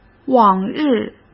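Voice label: "往日", Mandarin Chinese; hsk; chinese-flashcards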